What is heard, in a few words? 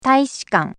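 "taishikan", Japanese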